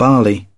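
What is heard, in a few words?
"barley", British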